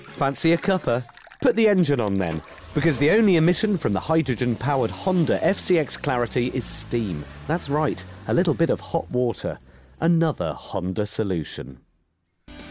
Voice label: Commercial Reel

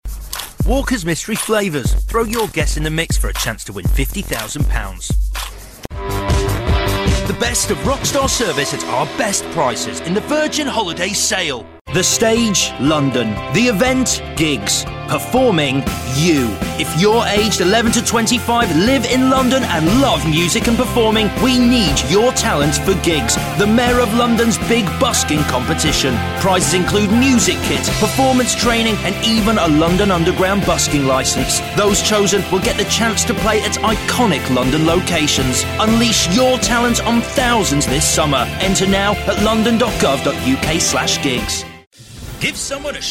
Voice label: commercial reel

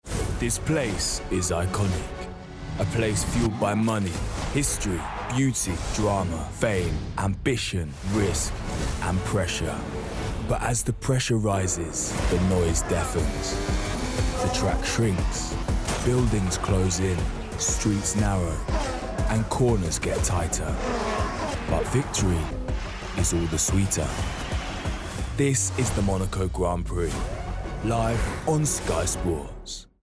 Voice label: Promo; Monaco; SKY SPORTS GRAND PRIX PROMO

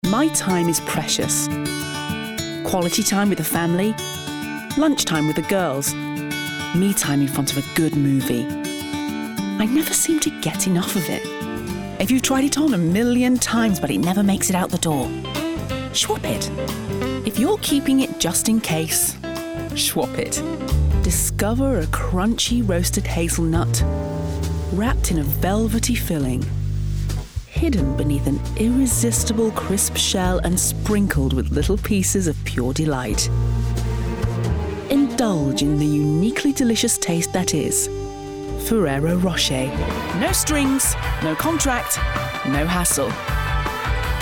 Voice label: Commercial Reel

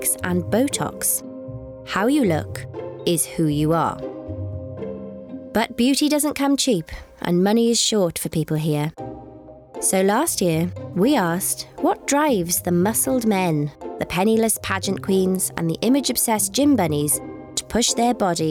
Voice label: Young Welsh, Narrative; YOUNG, WELSH & PRETTY SKINT NARRATIVE

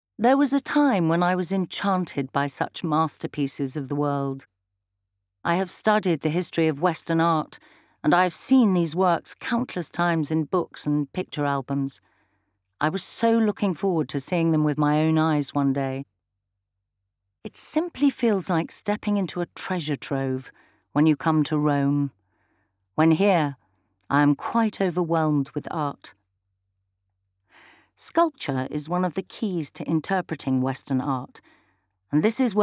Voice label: documentary

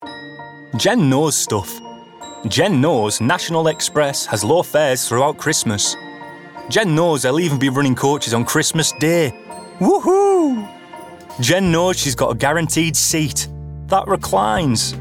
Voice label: Ad; National Express

